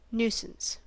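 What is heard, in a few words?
"nuisance", US English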